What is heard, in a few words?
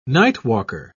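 "nightwalker", English, British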